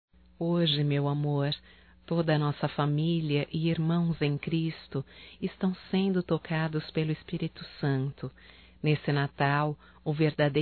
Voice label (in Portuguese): Romântico Feminino; Telemensagens 2017; Natal & Ano Novo